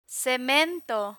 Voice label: "cemento", Spanish; construction tools

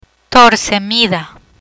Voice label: "Torsemida", Spanish; hypertension therapy

